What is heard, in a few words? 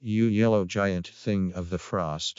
Creaky; arctic